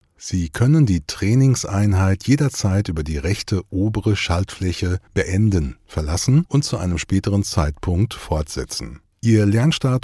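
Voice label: German, male, e-learning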